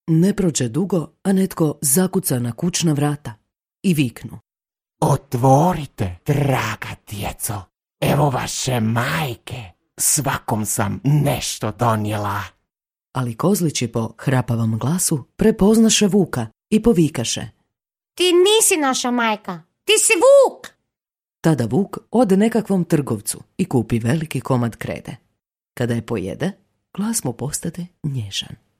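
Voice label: Croatian F Character, female; voice over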